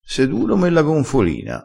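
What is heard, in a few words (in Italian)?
"duro ome la gonfolina", dialettale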